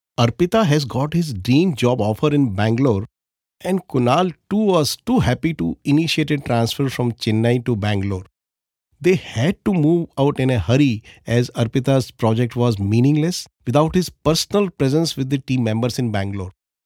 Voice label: NARRATION E-BOOKS ANIMATION ANCHORING ENGLISH